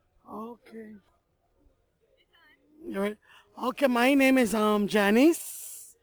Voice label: Caribbean St Martin Female 40s